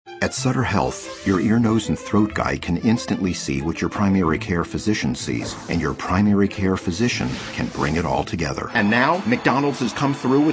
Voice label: male natural character

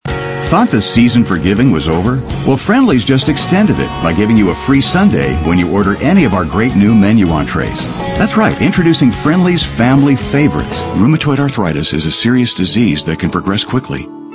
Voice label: male, mid30s mid40s warm

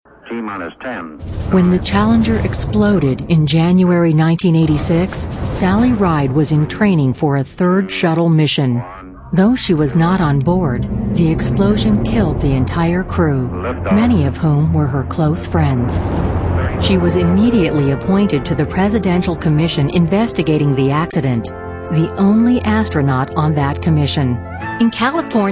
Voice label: female narration

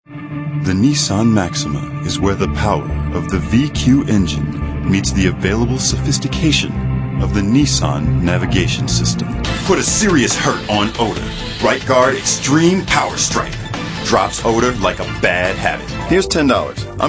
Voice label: male mid30s mid40s cool

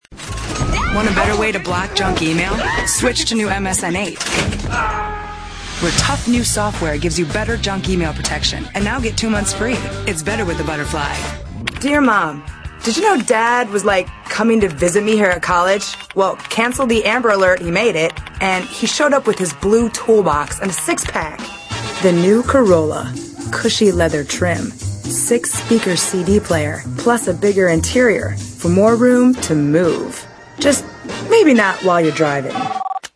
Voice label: female, late 20s mid 30s contemp

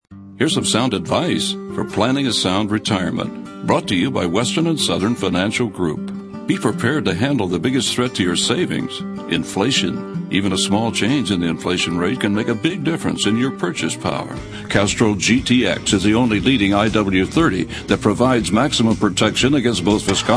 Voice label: male, natural character

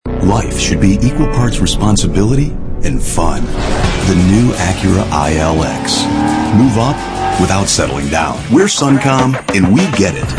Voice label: male, mid30s mid40s cool

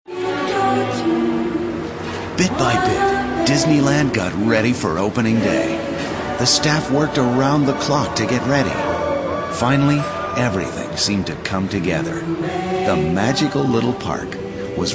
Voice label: male narration

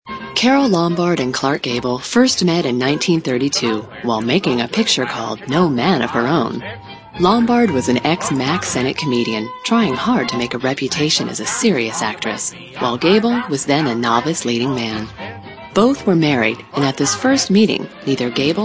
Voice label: female narration

